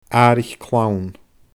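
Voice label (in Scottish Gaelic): "aaraich clann", Scottish Gaelic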